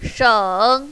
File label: "sheng3", mandarin, female